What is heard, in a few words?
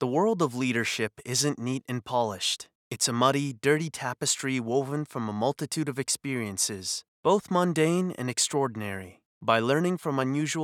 english canadian audiobooks